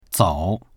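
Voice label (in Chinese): "zou" Chinese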